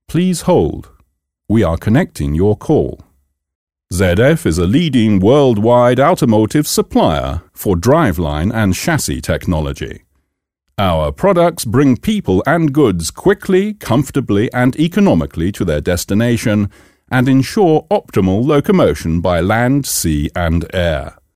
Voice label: standard, english